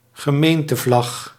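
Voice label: "gemeentevlag", Dutch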